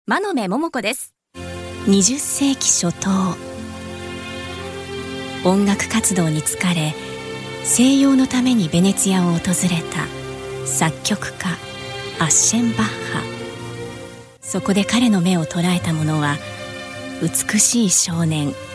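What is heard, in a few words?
female documentary, English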